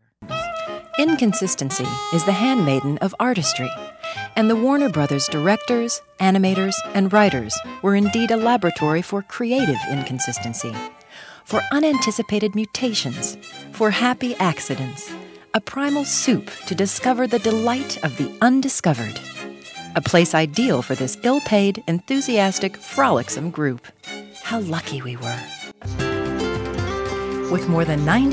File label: Warm Sincere